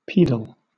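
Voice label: "pedal" English, British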